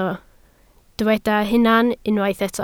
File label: "hunan", Welsh